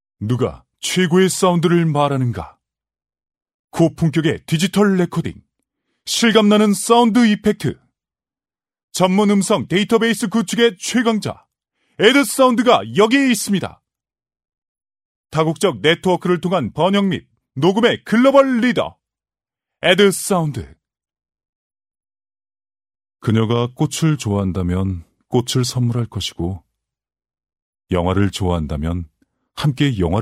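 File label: Korean male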